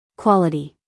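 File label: "quality", American English female; everyone-can-use-english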